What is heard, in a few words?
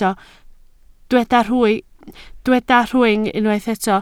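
"rhwng" Welsh, spoken word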